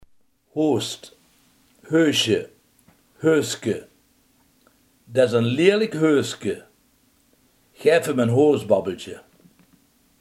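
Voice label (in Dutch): hoest, Limburgse gesproken woordenboeken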